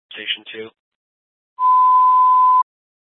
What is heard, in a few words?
Fire Dispatch, scanner